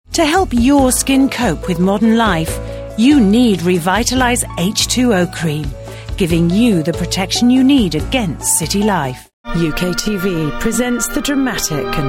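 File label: British TV commercial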